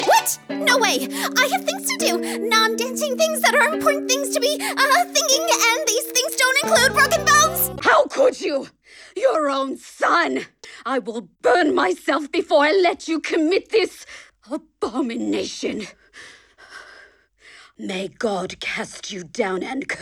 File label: VOICE ACTING